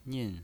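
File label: "nin" fourth tone, pinyin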